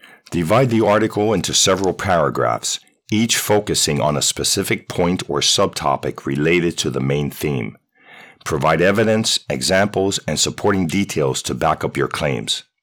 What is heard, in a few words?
explainer, voice over; Clear Wave Voice Over